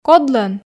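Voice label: "кодлӧн", Komi, female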